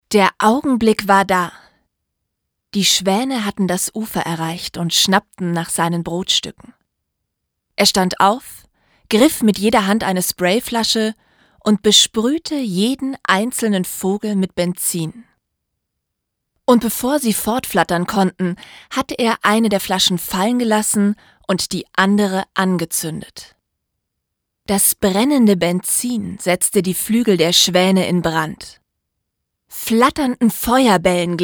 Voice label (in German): Hoerbuch; Hörbuch (Krimi): Vor dem Frost